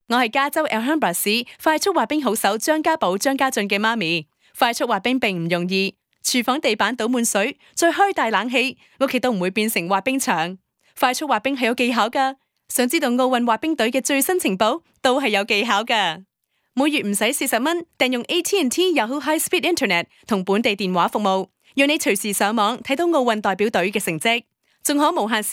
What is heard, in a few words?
Cantonese; foreign languages